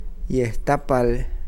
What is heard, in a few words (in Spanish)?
Nawat palabras ala; pipil